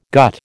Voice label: "gut", American